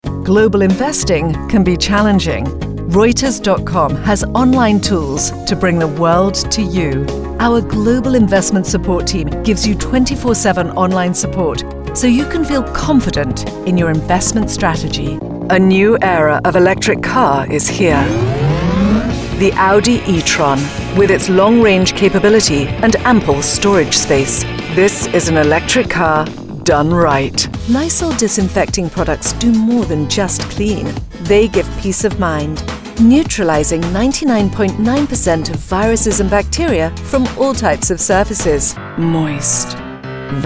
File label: British Voice Commercial